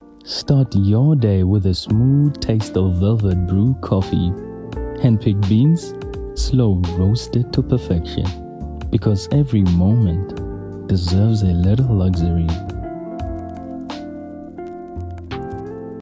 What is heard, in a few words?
Softsell